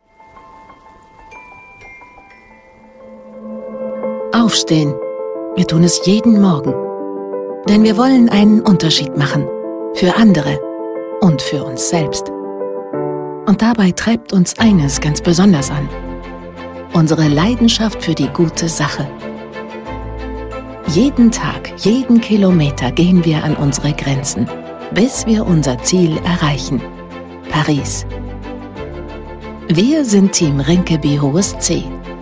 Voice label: Werbesprecherin fuer Fernseh oder Onlinewerbung

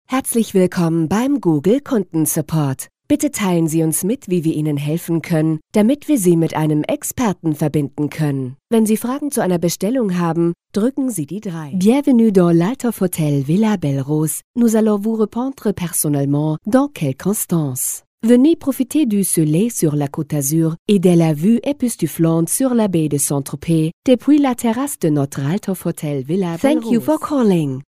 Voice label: Sprecherin fuer Telefonansagen Mix Deutsch Franzoesisch Englisch

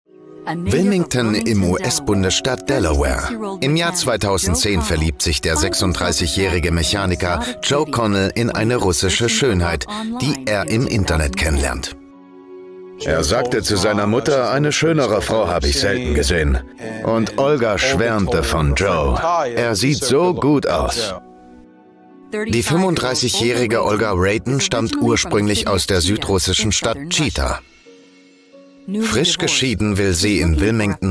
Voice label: Dokumentation

